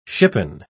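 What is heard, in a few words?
"shippen", British English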